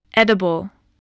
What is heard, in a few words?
"edible", English